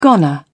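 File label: "goner", British English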